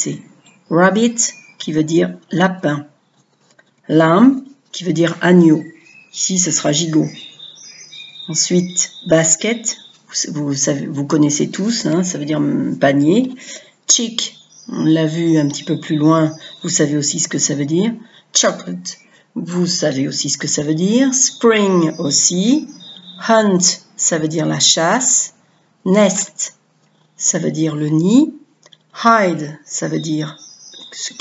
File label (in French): vocabulaire mots meles de paques en anglais